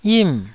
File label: "yim4", Taiwanese